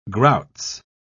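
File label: "grouts", British English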